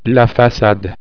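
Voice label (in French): "facade", French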